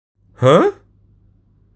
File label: Male Confused